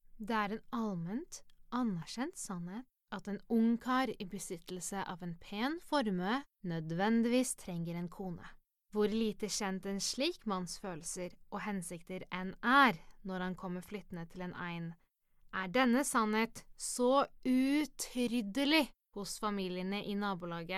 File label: Norwegian Speaking Voice, 20s – 30s. Female. Norwegian, voiceover